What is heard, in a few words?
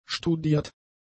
"studiert", German; adjectives